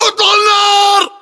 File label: order attack infantry, battlechatter, english